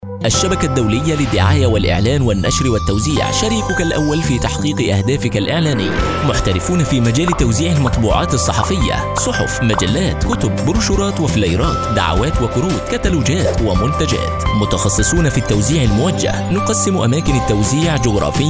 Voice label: Explainer video

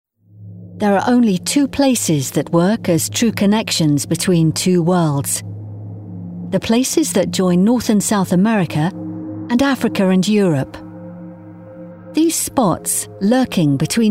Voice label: narrative

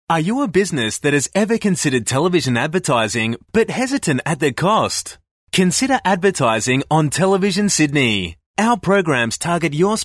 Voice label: corporate sample

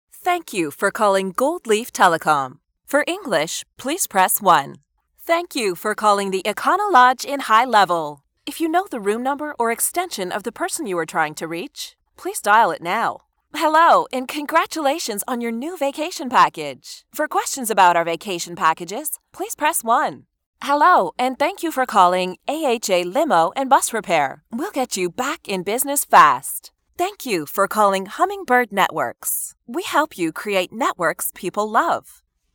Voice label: Telephony

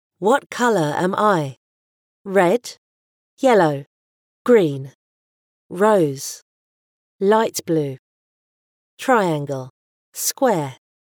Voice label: Learning for Kids

